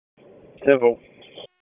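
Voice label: Police Northeast District Dispatch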